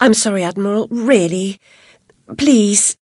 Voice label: sorry, voice commands; svencoop; Alice